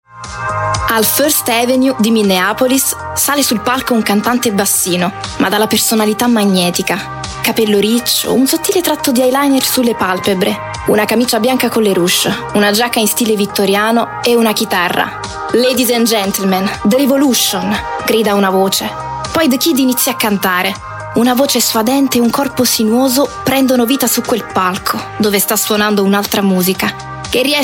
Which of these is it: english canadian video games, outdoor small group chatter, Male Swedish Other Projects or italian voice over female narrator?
italian voice over female narrator